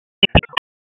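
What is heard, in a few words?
Raleigh Police Special Operations Tactical, p25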